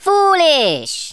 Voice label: "stupid", Rasta

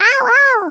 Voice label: "ouch", Swedish

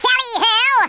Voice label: INCOMING, Speech, Wacky

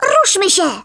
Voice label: COME ON THEN, Speech, Polish; Worms Armageddon

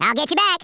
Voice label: "youll regret that", Redneck

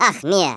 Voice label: "OH DEAR", Africaan, Speech; Worms Armageddon

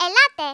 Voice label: "come on then", Greek; Worms Armageddon

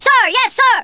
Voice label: yes sir, Drill Sergeant; Worms Armageddon

